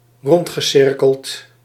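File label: "rondgecirkeld", Dutch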